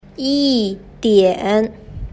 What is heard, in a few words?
"yidian", Chinese